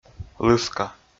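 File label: "лыска", Komi, male; kpv audio dict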